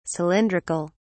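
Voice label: "cylindric(al)", British English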